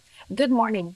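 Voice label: voice acting, es, female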